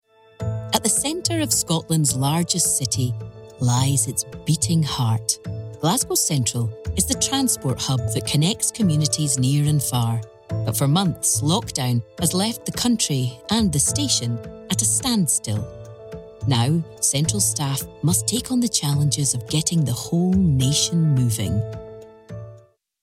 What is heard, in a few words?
Documentary Extract